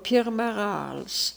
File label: Pirmarals, Beelzebub pronounciations; Fourth Way